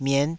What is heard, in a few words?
"miet5", taiwanese